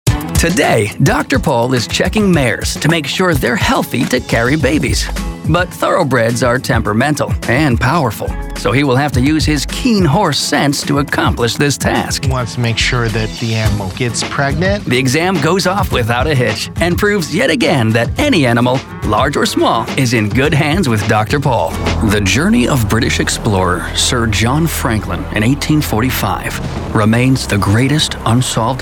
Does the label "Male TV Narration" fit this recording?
Yes